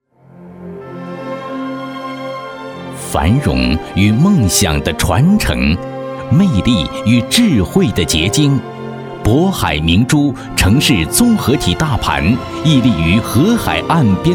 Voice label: 房地产 CBD 专题, 国语男声配音

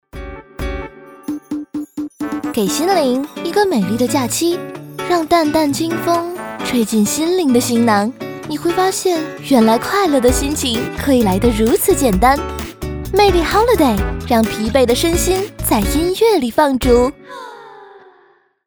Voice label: 女 广告 轻松欢快

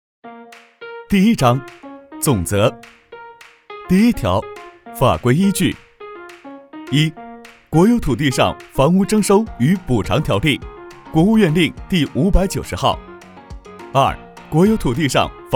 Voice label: 男 MG 积极活力; 住建部

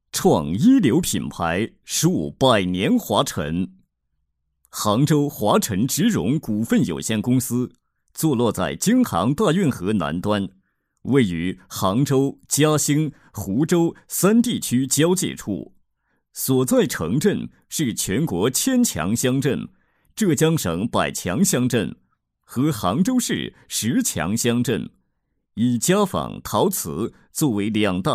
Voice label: 男 企业专题 企业宣传, 国语男声配音 稳重专题; 华辰植绒

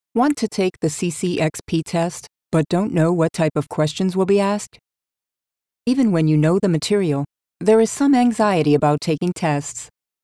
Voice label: 外籍女 Whiteboard Animation 白板动画影视叙事; CCXP

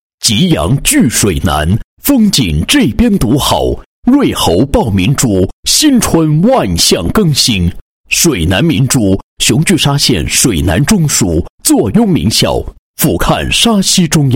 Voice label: 男 房地产广告, 配音; 吉羊踞水南风景这边独好

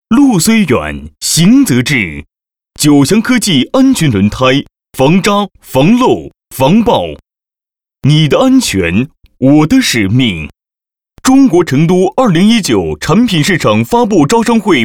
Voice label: 广告; 路虽远-行则至

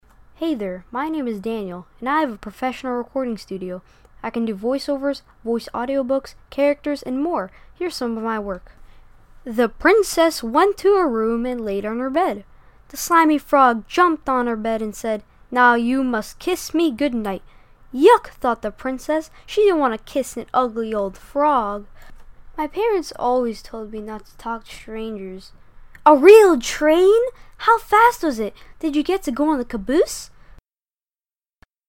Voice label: 童声男, 故事描述

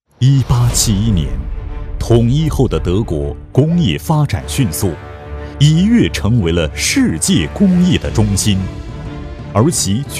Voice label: 男 浑厚磁性, 国语男声配音, 企业宣传片; 施密特电梯

